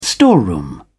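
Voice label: "storeroom", English, British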